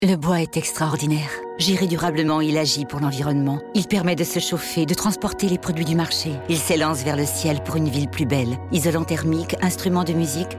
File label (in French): Spot radio